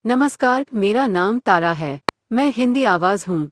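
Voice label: Hindi; hi-IN; iOS Sounds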